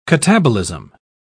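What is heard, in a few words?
"catabolism", British English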